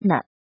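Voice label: "呢", Chinese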